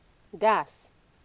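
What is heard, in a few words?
"gas", Nigerian Pidgin, female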